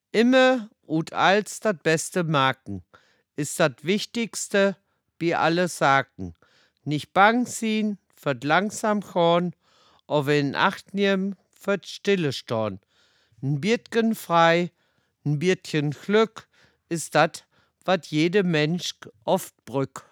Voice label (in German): Platt; Hummelbachpromenade; Plattdeutscher Weg; Nottuln